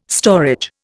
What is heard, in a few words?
"storage", Indian